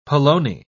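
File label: "polony", British English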